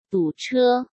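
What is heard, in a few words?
"堵车", Mandarin Chinese; hsk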